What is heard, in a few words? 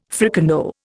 "fricandeau", Indian